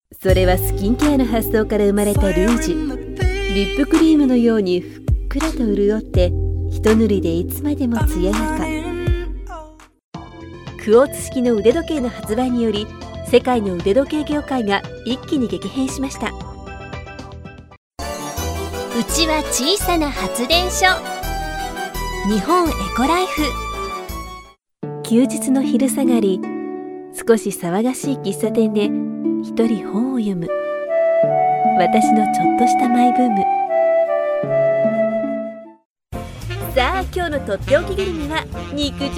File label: Japanese female